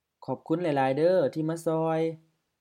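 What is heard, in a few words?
Expressing thanks Dialogue D, Isaan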